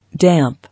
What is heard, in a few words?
"Damp", English